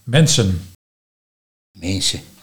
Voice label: "Minsen", Nieuw lekkerlands dialect

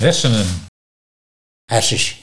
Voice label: "Harses", Nieuw lekkerlands dialect; hersenen

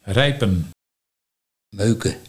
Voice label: Meuken, Nieuw lekkerlands dialect

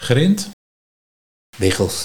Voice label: "Biggels", Nieuw lekkerlands dialect; grind

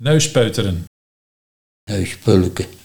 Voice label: Neus pulleke, dialect Nieuw lekkerlands

